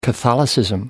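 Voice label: "catholicism", British English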